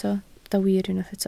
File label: "wir", Welsh